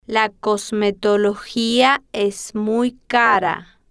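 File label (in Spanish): la cosmetologia es muy caro, spanish, female, slow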